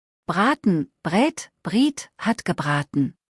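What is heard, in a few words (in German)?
"braten" German word; verben kurzliste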